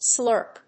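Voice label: "slurp", English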